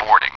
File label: "boarding", Spraak